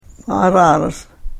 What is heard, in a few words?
"paaraaras", karuk